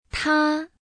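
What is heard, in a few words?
"他" Chinese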